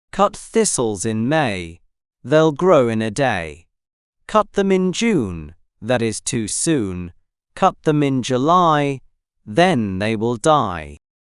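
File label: male British; Cut Thistles in May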